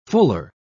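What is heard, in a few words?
"fuller", British English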